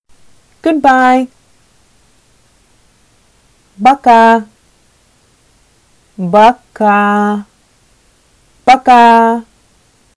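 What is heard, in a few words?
Sakha Goodbye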